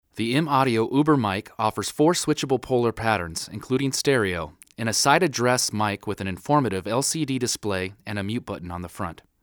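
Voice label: Vocal, M-Audio Omni; usb mic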